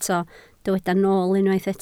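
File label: "nool" Welsh, spoken word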